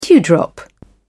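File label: "dewdrop", British English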